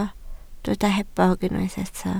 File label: "hebog", Welsh